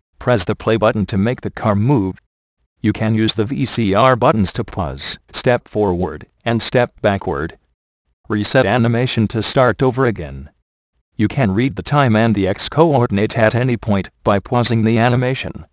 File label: instruction